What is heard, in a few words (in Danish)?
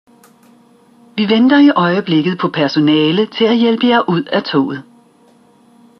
stog afventer hjaelp